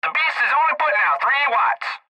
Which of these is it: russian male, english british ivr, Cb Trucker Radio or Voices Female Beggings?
Cb Trucker Radio